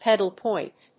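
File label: "pedal point", English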